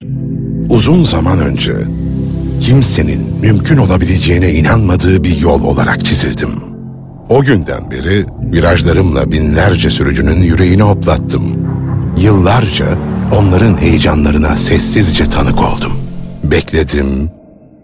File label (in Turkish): kurumsal imaj